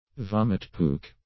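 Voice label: "vomit puke", English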